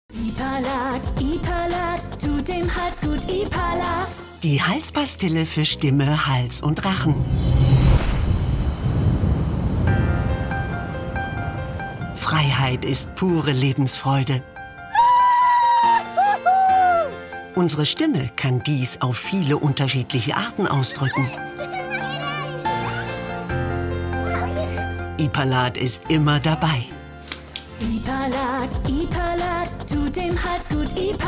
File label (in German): audiomix werbung